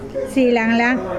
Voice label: rib, Mokilese

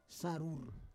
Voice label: "sarur", Roon

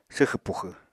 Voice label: "swxẁ puxẁ", Apatani

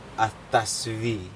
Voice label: break something strong, Aneityum

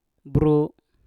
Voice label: "bru", Mpur; turun

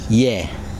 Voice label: year, Kosraean

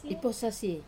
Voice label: "posasiei", separate, wamesa

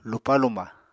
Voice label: "lupá-luma", Apatani

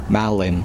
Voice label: moon, Kosraean

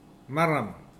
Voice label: bright, Mokilese

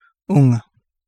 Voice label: Kapingamarangi; tritons trumpet

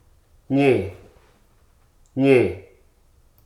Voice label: "house", Hruso

